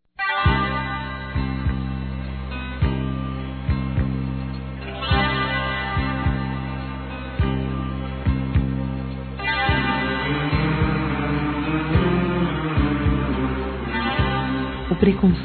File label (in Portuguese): Desculpas Amante Femin, Telemensagens; Telemensagens 2017